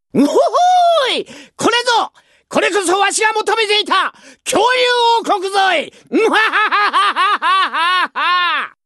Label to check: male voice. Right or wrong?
Right